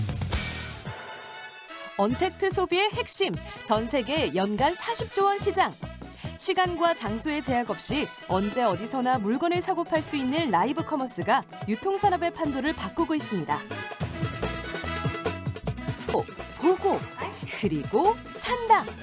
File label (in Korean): NA 낮은톤 자신있는